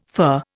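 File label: "fur", Indian English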